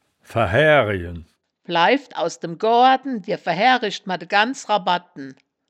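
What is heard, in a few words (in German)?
"ver-haeaerijen", Wittlicher Wörterbuch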